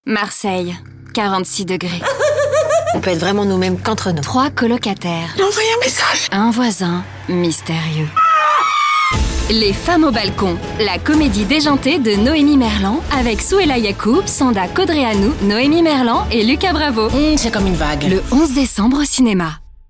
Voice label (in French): SPOT; voix-off; LES FEMMES AU BALCON